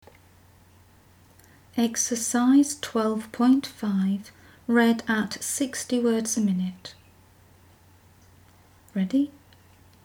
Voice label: 60wpm; Teeline